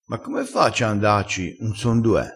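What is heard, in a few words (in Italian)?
"mena", dialettale crespinese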